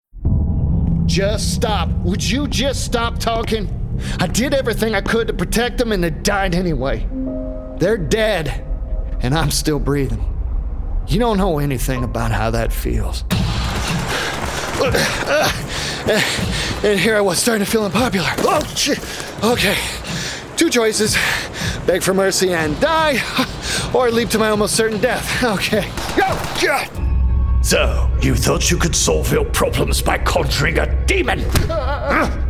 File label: oyun, game dubbing male voices american accent